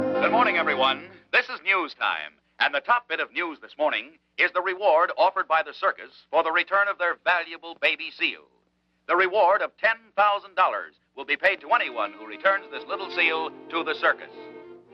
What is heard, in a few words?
Newscaster The Reward offer